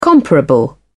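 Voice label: "comparable", British English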